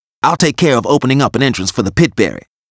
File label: Bonus uk; Wii